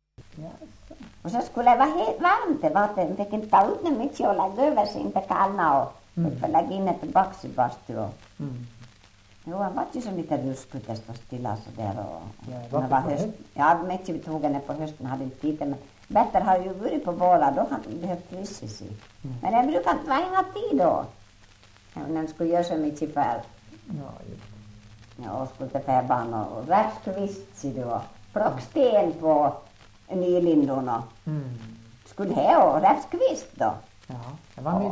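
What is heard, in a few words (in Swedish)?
Ål Dalarna, Svenska sagor, dialekter; Folkminnen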